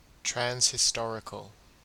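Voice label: "transhistorical", Australian English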